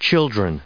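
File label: "children", English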